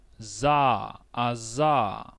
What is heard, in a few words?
voiced alveolar sibilant, phoneme